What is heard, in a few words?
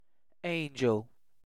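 "angel", British English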